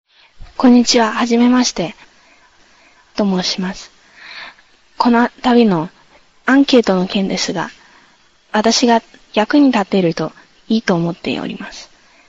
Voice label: Japanese Conversation